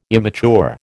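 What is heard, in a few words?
"immature", American English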